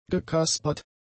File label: "gekaspert", German; adjectives